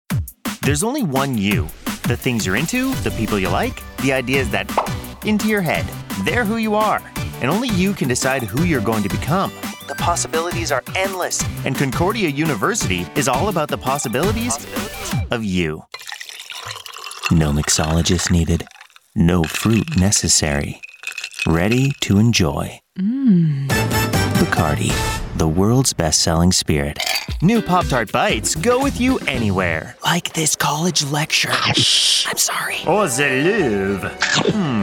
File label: commercial; TaDa